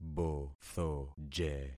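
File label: "bothoje", concatenated syllables; Affixing experiment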